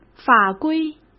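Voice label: "法规", Mandarin Chinese; hsk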